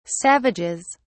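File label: "savages", English, British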